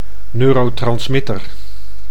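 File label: "neurotransmitter", Dutch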